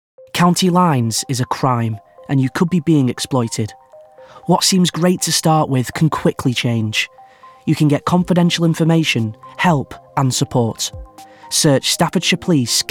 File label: Voiceovers UK Showreel